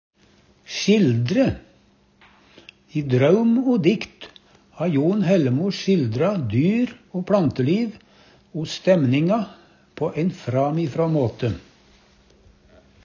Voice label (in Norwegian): "sjildre", Numedalsmål; I "Draum og dikt" ha Jon Hellemo sjildra dyr- o pLanteliv o stæmninga på æin framifrå